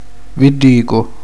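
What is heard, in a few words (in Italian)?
"ombelico", Italian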